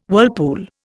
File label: "whirlpool", Indian